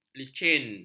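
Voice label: "licin", Malay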